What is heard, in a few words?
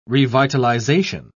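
"revitalisation", British English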